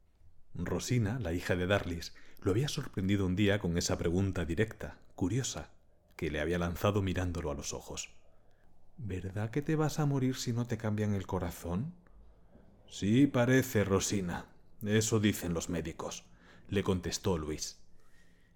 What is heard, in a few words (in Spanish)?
suave; Rosina; personaje